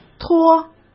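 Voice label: "脱", Mandarin Chinese; hsk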